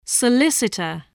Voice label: "solicitor", English voice